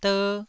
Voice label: "der1", Taiwanese